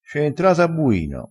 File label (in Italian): "a buino", Crespinese